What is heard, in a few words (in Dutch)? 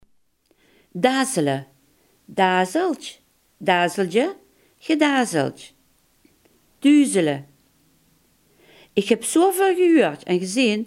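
"duizelen" Limburgish dialect word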